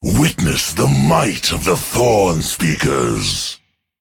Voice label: Male Drust Emote Start